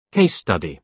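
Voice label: "case study", British English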